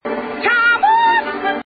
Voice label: Mammy Yelling